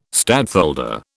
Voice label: "stadtholder", British